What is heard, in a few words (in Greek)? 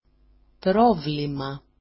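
"provlima", Greek; πρόβλημα; problem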